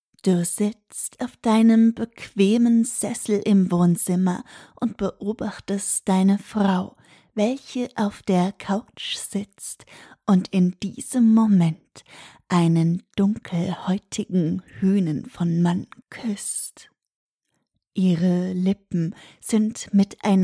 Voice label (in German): erotische Hypnose; Ohrgasmus; Cuckold C2 - Black & White